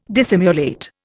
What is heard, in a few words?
"dissimulate", Indian